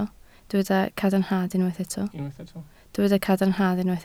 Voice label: "cadarnhad", Welsh